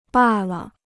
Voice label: "罢了", Chinese